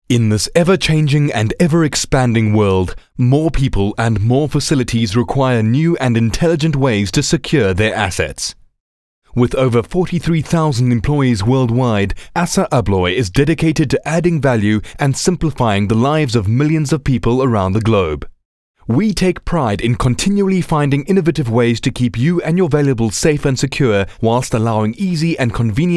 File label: Corporate AV VO